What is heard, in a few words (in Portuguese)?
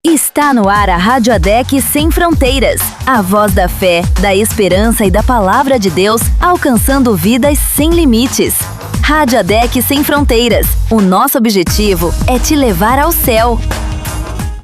vinheta radio gospel; adec sem fronteiras